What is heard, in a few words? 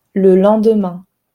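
"le lendemain", French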